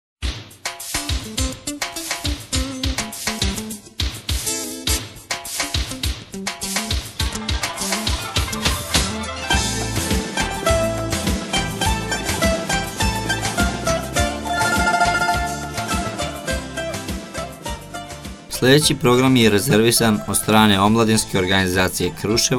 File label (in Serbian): reklama